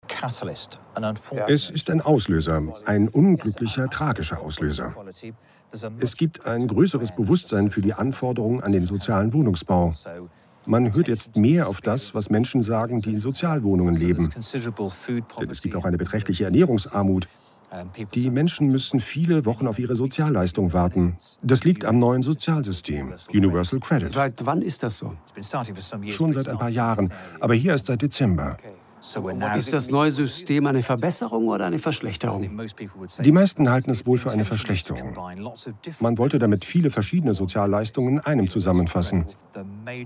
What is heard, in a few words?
Voice Over